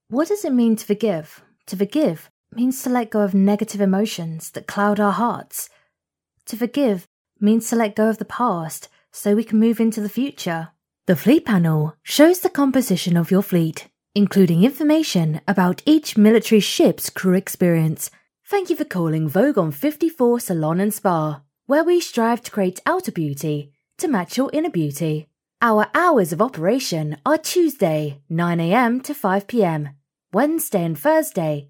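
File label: English female; lektoring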